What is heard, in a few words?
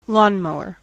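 "lawn mower", US English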